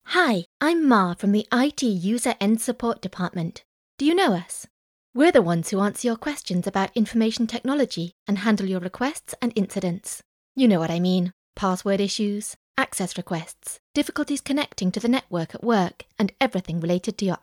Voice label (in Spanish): voz Corporate